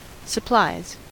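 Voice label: "supplies", US English; pronunciations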